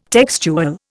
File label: "textual", Indian